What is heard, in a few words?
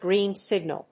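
"green signal", English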